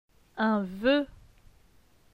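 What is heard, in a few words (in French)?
"Un voeu", French; phonetique; les voyelles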